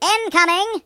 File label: "Incoming", British; hedgewars